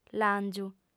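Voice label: "làngiu", female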